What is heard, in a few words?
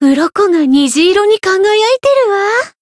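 growth, Japanese; pizzica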